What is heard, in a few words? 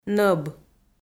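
"нӧб" Komi-Zyrian, female; kpv audio dict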